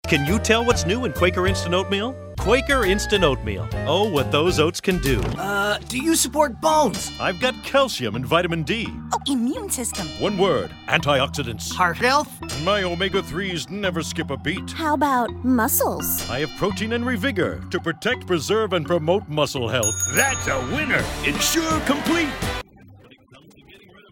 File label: Television Commercials